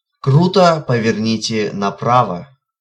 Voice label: Sharp Right, Russian, male

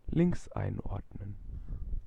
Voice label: Keep Left, German, male

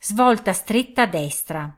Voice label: Sharp Right, Italian female

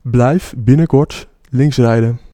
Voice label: Ah Keep Left, Dutch, male

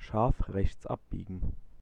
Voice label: Sharp Right, German male

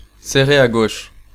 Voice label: Bear Left, French, male

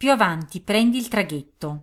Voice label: Ah Ferry, Italian female

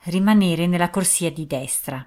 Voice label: Italian, female

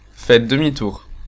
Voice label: U Turn, French, male

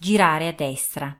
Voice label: Bear Right, Italian female

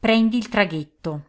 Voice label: Take Ferry, Italian female

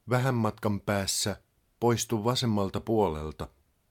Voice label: Finnish, male; Opas; Ah Exit Left

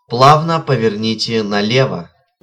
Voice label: Bear Left, Russian male

